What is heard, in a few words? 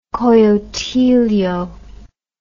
"coyotillo", English